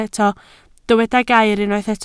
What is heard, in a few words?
"gair", Welsh